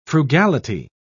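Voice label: "frugality", British English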